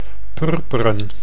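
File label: "purperen", Dutch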